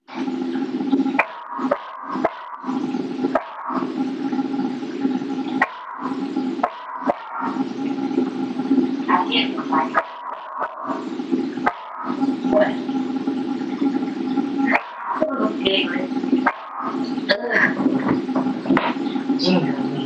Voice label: Whisper Enh